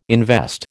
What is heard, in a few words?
"invest", American